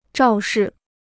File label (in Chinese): "肇事", Chinese